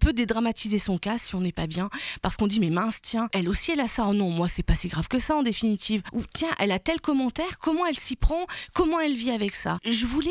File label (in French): Enfant